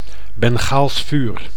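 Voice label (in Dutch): "Bengaals vuur", Dutch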